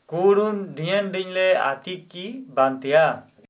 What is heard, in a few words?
"distribute food", Sora